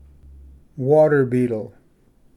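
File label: "water beetle", English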